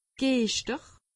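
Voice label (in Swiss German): "giester", Alsatian; medecine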